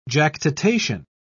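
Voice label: "jactitation", British English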